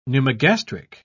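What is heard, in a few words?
"pneumogastric", British English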